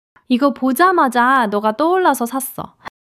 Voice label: joy; monologue

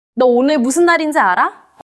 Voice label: neutral, monologue

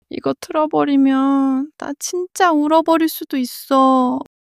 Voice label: crying; monologue

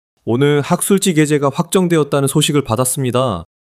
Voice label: excitement

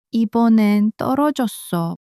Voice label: sadness, monologue

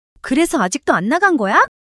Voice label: surprise, monologue

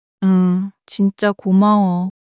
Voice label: sadness, monologue

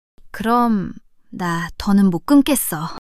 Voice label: shy; monologue

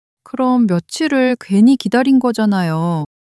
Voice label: sadness, monologue

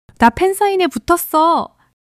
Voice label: excitement; monologue

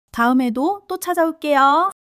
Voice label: joy

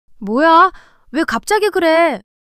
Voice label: surprise, monologue

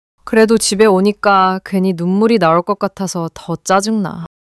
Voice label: sadness

